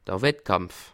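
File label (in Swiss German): "wettkampf", Bas Rhin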